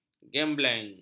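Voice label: "gembleng", Malay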